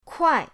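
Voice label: "kuai4", Mandarin Chinese, pinyin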